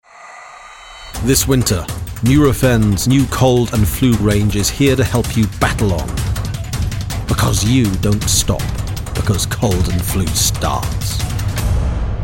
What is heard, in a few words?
Commercial; Nurofen